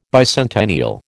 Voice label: "bicentennial", American